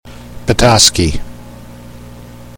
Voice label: pronunciation en petoskey